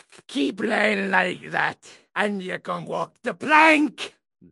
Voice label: upset; fertig classic games; blackwind